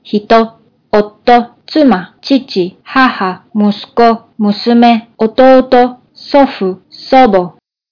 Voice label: japanese people